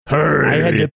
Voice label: growling hurry